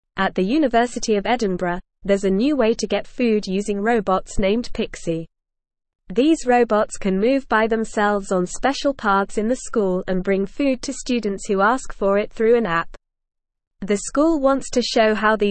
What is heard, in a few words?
English Lower Intermediate NORMAL Reading; Robots at University Bring Food to Students